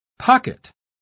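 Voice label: "pocket", English word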